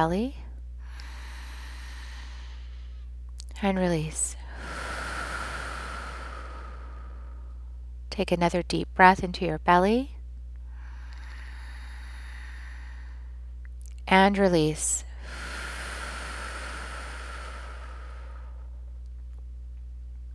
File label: Cleaning Up Ancestral Patterns Meditation